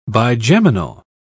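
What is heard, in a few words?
"bigeminal", English, British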